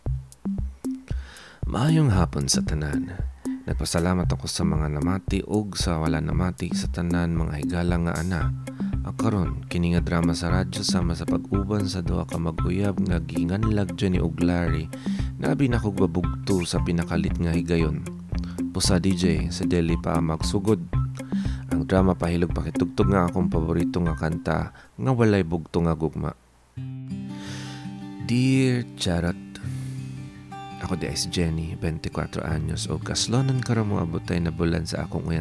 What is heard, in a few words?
voice over in cebuano